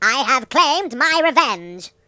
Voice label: "Revenge", British; hedgewars